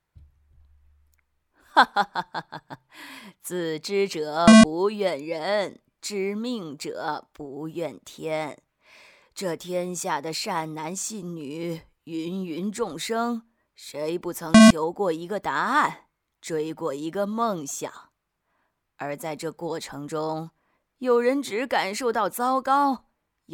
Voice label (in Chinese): 老妇人模仿试音